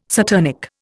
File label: "saturnic", Indian